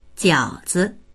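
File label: "jiao3zi", Chinese; jiǎozi; 饺子; ぎょうざ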